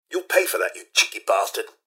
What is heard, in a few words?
assault, robot; mrhandy